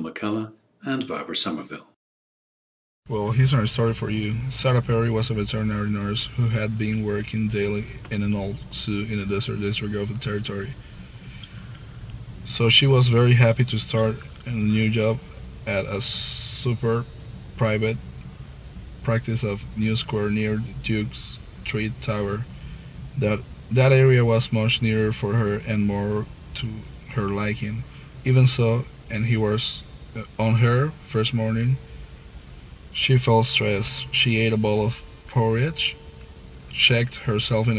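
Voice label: panama, International Dialects of English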